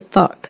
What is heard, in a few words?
"fuck" drag; Voice; expletives